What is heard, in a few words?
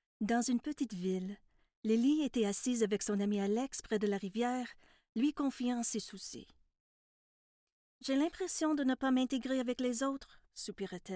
narration